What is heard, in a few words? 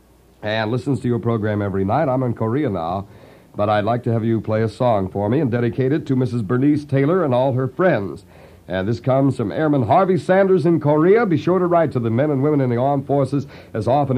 commercial wine; 1953; WJW; Moondog